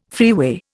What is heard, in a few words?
"freeway", Indian